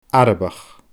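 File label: "Arabach", Scottish Gaelic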